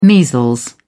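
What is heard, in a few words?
"measles", British English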